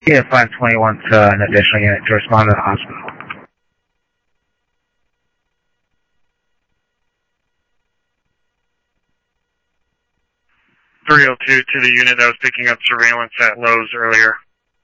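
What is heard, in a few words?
Sheriff Lake Patrol, scanner audio trunk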